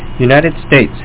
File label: United States, English; WordMatch